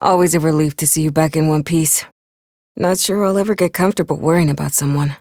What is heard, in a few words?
Ambient Barks, en; Warframe; Mall Romance; Lettie